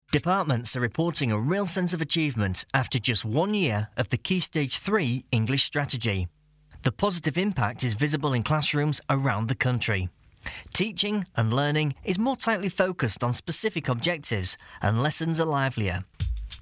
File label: narr